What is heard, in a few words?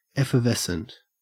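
"effervescent", Australian English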